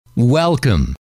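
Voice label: "welcome", male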